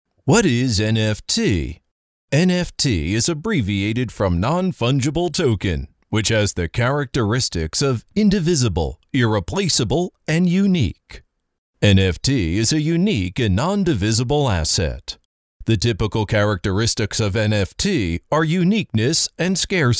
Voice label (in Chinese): 英文; NFT